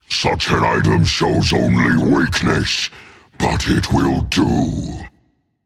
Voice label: purchase not recommended rage; chaac